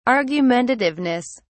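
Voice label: "argumentativeness", British English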